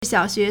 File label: "xiao3xue2", Chinese